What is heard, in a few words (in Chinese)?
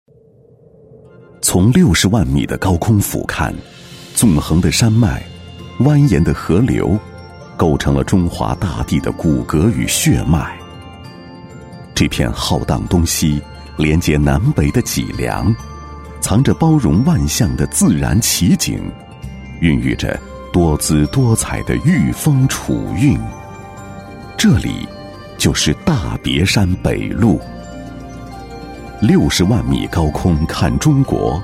男 大气讲述, 高端质感 高端大气; 航拍中国